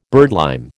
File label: "birdlime", American